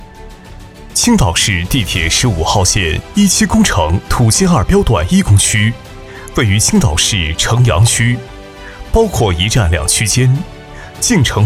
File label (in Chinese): 男, 专题 汇报类